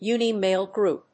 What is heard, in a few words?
uni-male group